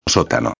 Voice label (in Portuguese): "sotano", espanhol